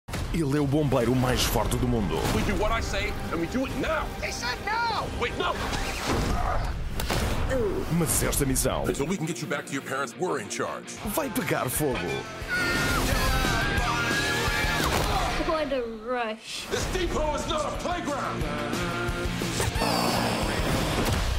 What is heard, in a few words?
portuguese movie trailers